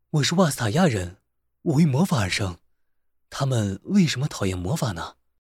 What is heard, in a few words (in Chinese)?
角色男; 洛